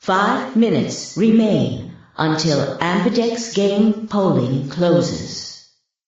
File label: announcer vote closing in 5 min